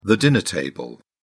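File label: "dinner table", British English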